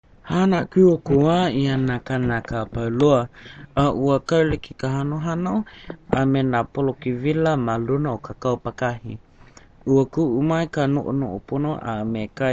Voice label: udhr hawaiian